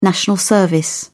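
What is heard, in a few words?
"national service", British English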